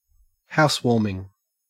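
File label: "housewarming", Australian English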